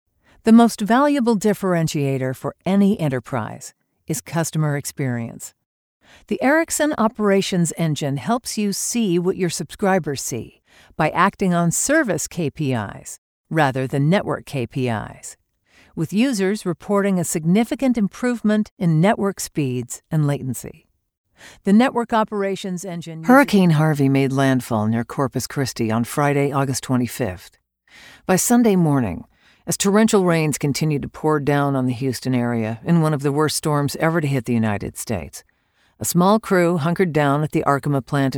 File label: Narration